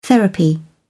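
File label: "therapy", British English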